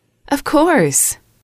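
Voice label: "of course", English, female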